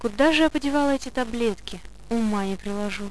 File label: monologue; svencoop; paranoia; medic